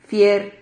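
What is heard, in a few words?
"fier", Spanish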